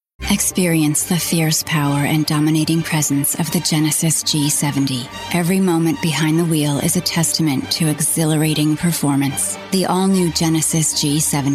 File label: commercial